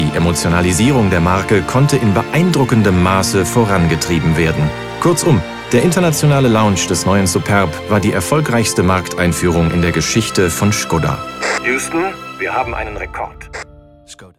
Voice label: german automotive